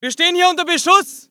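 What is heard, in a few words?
voice german infantry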